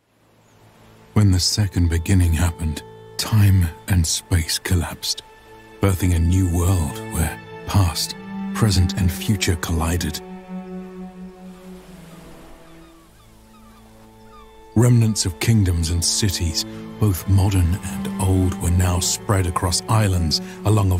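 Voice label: Narration, British Male Voiceover; provoiceactor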